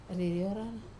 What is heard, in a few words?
"rariorar", to wobble, wamesa